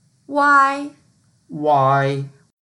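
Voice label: "wai1", Mandarin Chinese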